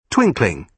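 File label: "twinkling", British English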